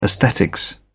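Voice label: "esthetics", British English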